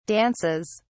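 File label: "dances", British English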